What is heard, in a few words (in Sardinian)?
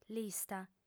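"lista", Sardinian, female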